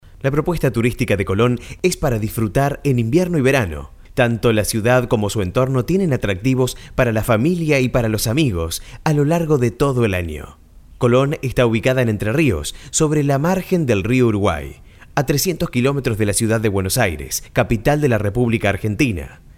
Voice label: locutor argentino argentinian voiceover

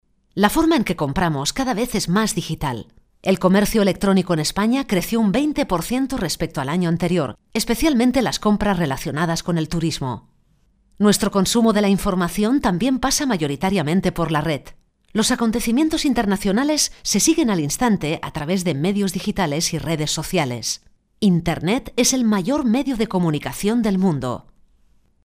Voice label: locutora spanish voice talent

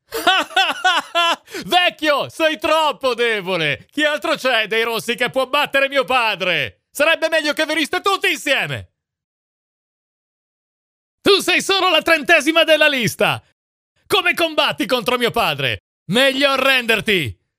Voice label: locutor italiano italian voiceover; Italian voice artist, locutora italiana de doblaje. Locutor italiano doblaje

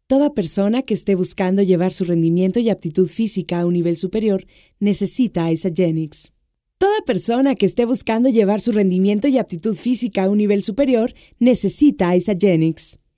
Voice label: locutor mexicano mexican voiceover

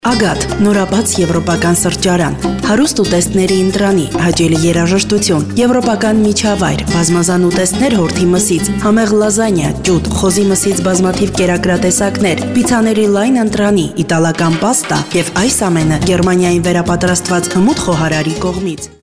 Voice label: female armenian voice locutora armenia

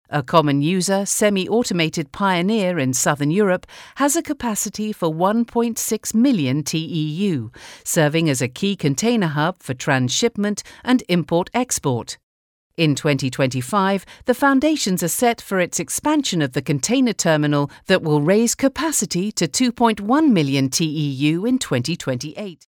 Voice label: locutora inglesa english voiceover; English voices; Locutores británicos; Locutores de Inglaterra

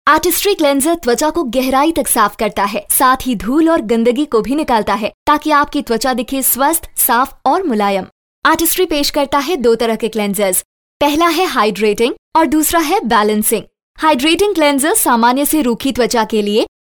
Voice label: hindi voiceover locutora hindi india; locutora hindú

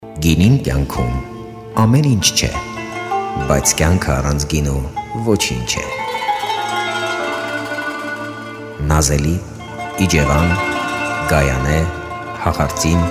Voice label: male voiceover armenian locutor armenio

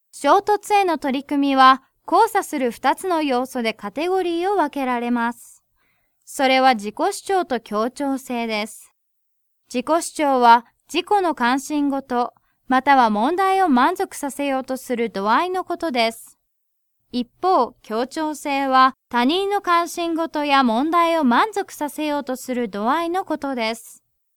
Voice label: japanese female voiceover locutora japonesa

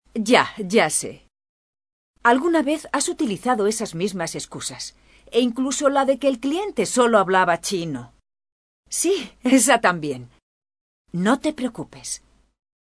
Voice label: locutora, Cartoon voice acting; voice over talent

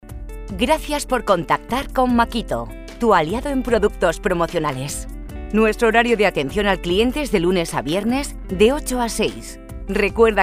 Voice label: locutora spanish voiceover

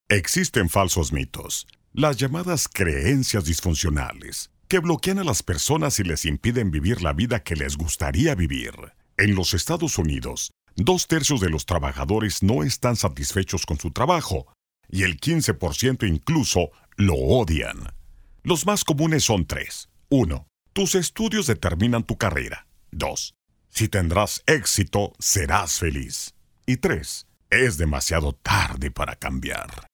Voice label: locutor espanol neutro spanish neutral voiceover; locutor castellano neutro, latin american neutral spanish voice over

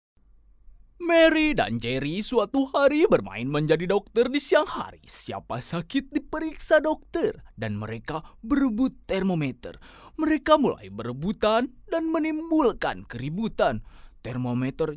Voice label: locutor indonesia indonesian voiceover; locutor indonesio

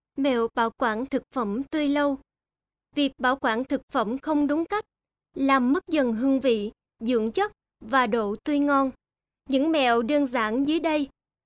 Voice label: vietnamese female voiceover locutora vietnamita

